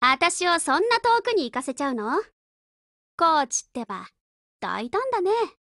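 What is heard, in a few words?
OPERATION BEGIN, Japanese, Voice; Lusa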